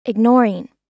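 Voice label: "ignoring", American English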